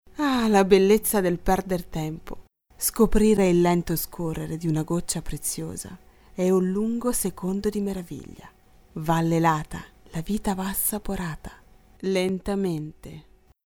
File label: female italian comercial; ideasonora